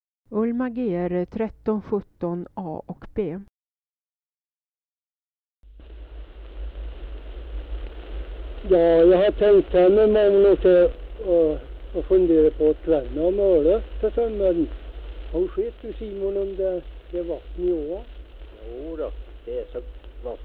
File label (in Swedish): Hede, dialekter, sagor; Folkminnen